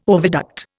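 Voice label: "oviduct", Indian English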